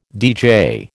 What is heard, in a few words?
"deejay", American